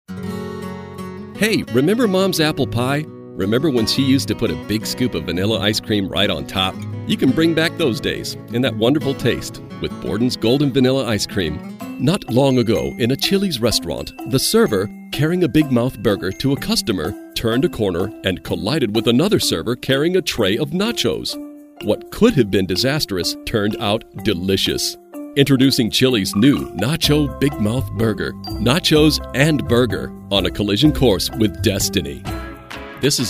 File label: voiceover